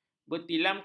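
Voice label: "bertilamkan", Malay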